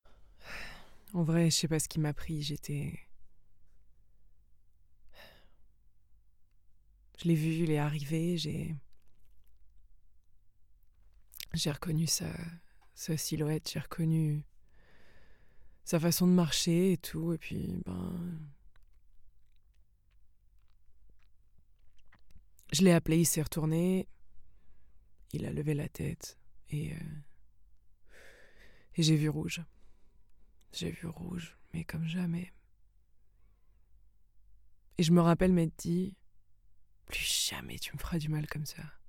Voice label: Home Studio Read

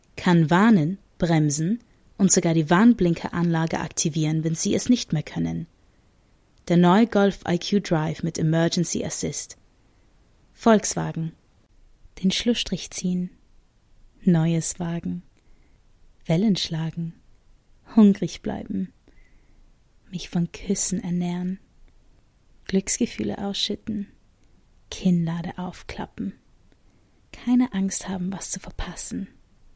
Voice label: German

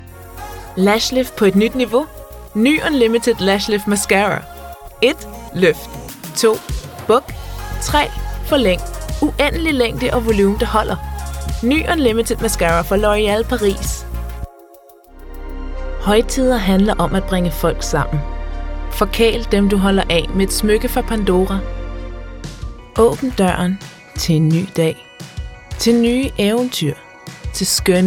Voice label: Danish

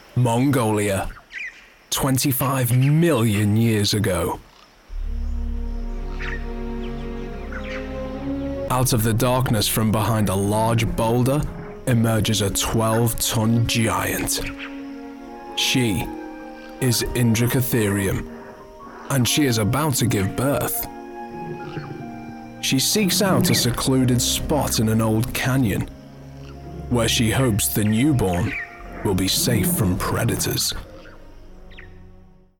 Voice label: Narration; 20s-40s. Male. Studio. Liverpool. Based in the US.

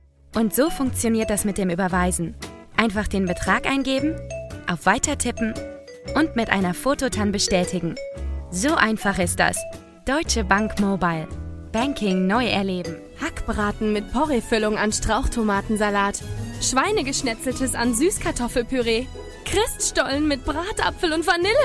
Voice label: German

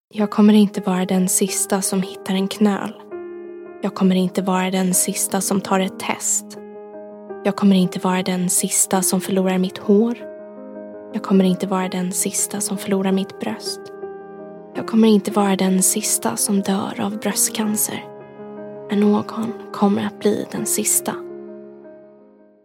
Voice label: Swedish

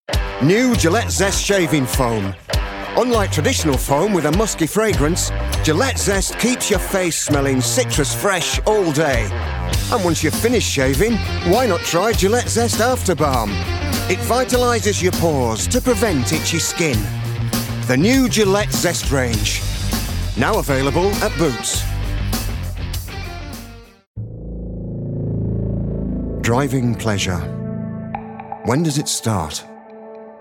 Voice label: Commercial; 20s-30s. Male. Studio. Yorkshire.

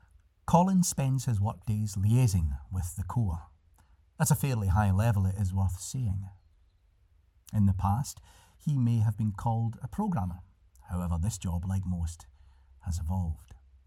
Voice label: Home Studio; deep Scots. Street voice that is perfect for documentaries and computer games. Home Studio.

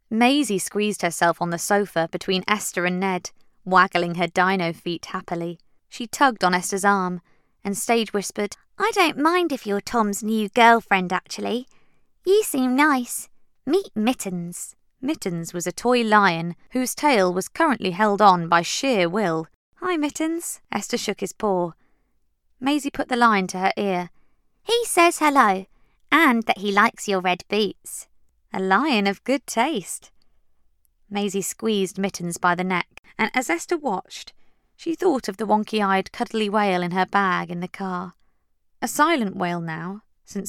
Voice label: audiobook; 20s-30s. Female. Studio. South African.

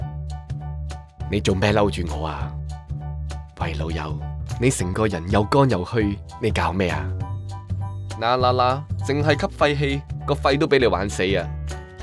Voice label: Cantonese Commercial; Nin Jiom Pei Pa Koa

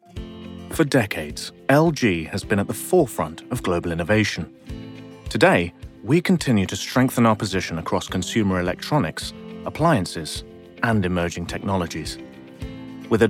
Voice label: Corporate

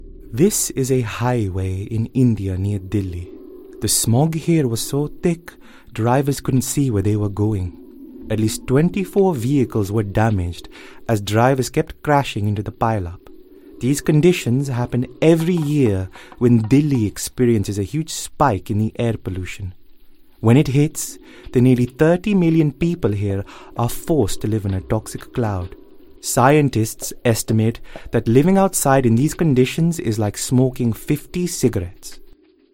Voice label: Narration

